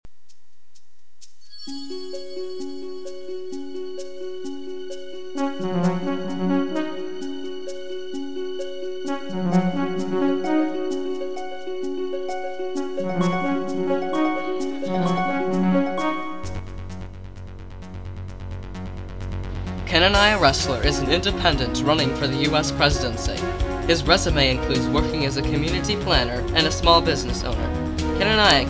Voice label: radio commercial